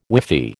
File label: "whiffy", American